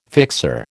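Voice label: "fixer", American English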